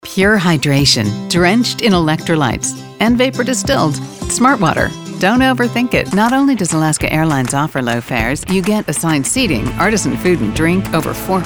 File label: Commercial